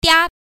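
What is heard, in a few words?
"dia", Mandarin Chinese pinyin, first tone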